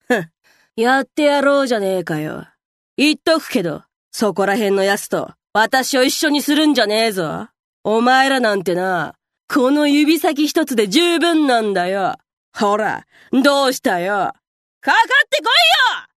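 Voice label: seikaku female iroppoi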